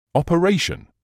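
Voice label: "operation", British English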